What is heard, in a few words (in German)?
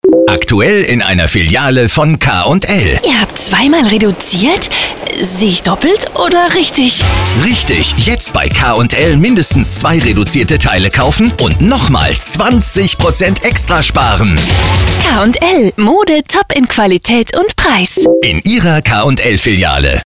Radiospot; Gerryland